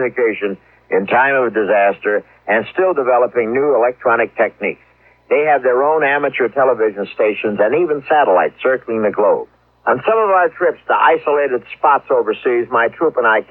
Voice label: radio commercial; ARRL; Bob Hope; 1977